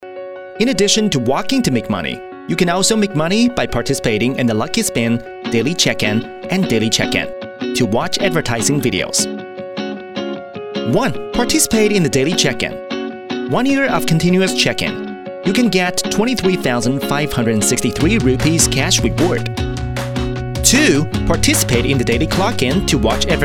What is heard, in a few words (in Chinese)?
英男 美式 飞碟说 少年音色